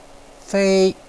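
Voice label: "fei", Mandarin Chinese, first tone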